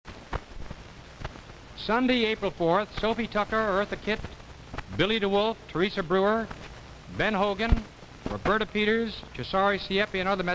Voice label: Television Public Service Announcements and Program Promos